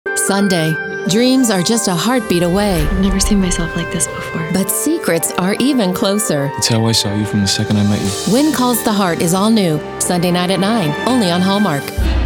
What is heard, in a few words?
promo